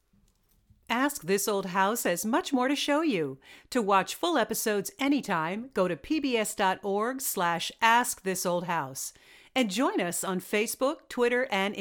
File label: english american tv shows